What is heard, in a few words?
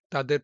Male